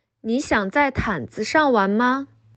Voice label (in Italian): Cinese